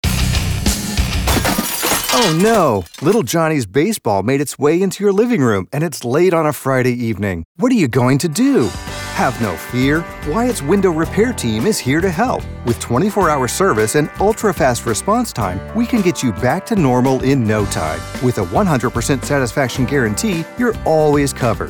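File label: voiceover commercial helpful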